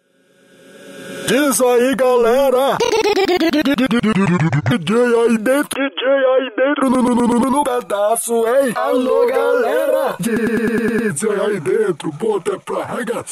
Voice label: vinheta off dj ai dentro

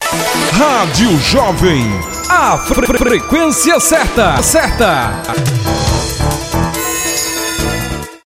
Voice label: radio jovem frequencia certa

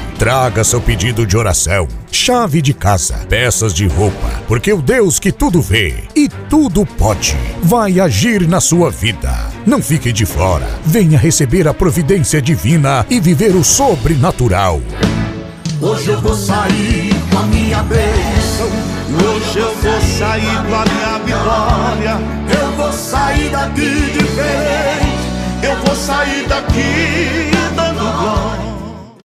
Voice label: locutor voz impacto pra igreja

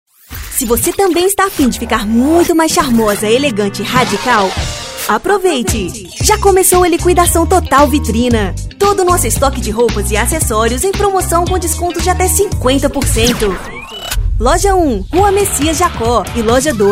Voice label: locutora

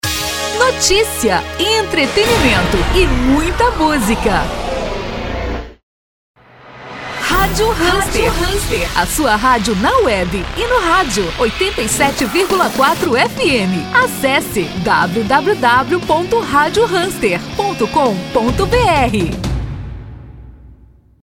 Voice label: Chamada rádio

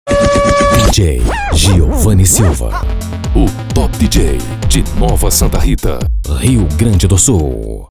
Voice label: vinheta voz locutor dj giovane

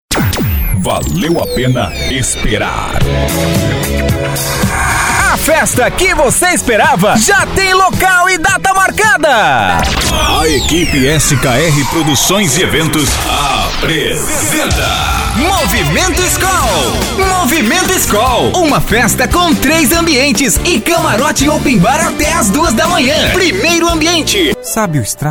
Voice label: Locutor; ÁUDIO RS PRODUTORA

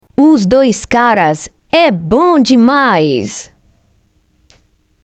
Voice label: Vinheta

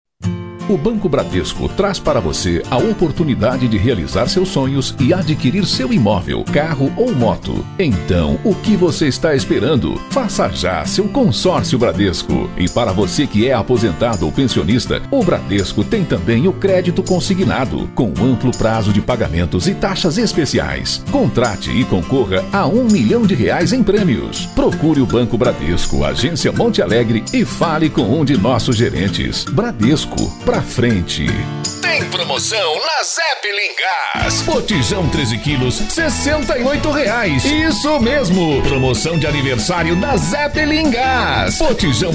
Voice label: locutor

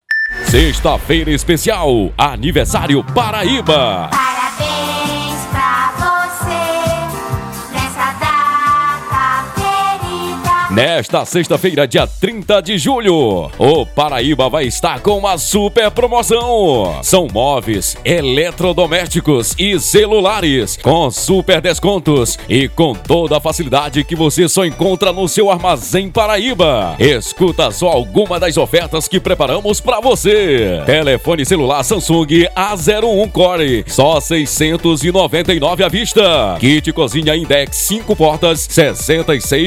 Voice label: locutor; armazem paraiba

